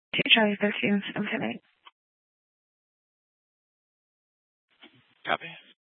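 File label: police dispatch radio, scanner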